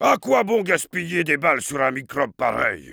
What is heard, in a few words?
melee dare fr; Heavy